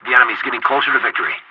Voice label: pilot tickets lead start enemy, english